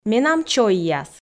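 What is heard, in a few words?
"менам чойяс", Komi, female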